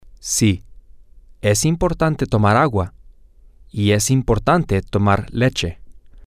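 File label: yes it is important to drink water and, Spanish, male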